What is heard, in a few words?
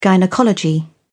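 "gynaecology", British English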